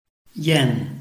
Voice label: "yan4", Chinese